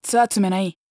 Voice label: neutral short

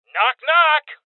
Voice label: Voices Door Kick Male; rebel cops 2019 gamerip

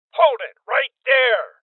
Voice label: Voices Freeze Male Old; Rebel Cops

